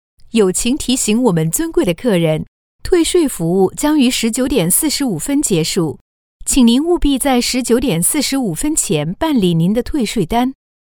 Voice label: Mandarin China instore; Galeries Lafayette